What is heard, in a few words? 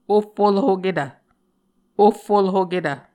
"ohfolhoketv", Muscogee